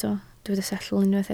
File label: "setl", welsh